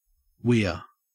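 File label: "weir" English, Australian; pronunciations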